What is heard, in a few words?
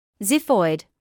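"xiphoid" English, British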